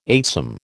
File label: "eightsome", American